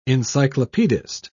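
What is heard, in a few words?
"encyclopedist", British English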